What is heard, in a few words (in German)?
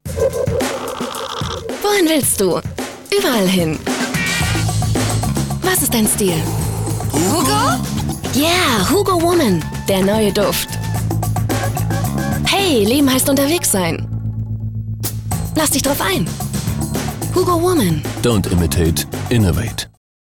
W Fremdtake, Freundlich